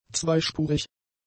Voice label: "zweispurig", German; adjectives